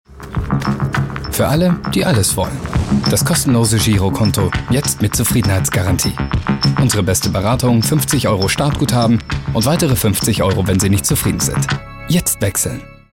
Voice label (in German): Werbung Neutral